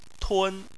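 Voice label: "tun", Chinese, first tone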